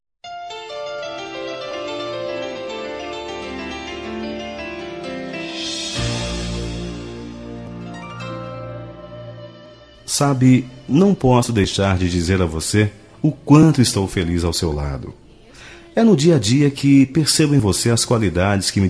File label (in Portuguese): Romântica pra Esposa